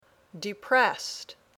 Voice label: "depressed", English